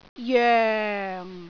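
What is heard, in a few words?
"joeng4", Cantonese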